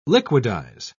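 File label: "liquidise", British English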